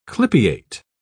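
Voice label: "clypeate", English, British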